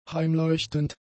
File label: "heimleuchtend", German; adjectives